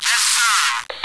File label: radio yes